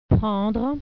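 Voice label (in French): "prendre", French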